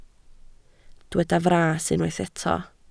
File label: "fras", Welsh